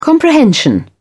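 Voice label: "comprehension", English, British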